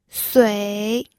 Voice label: "sui3", pinyin

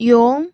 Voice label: "yong2", pinyin

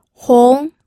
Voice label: "hong2", pinyin audio

